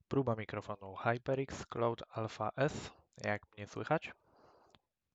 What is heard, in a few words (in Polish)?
Mikrofon Alpha S Domyslna Karta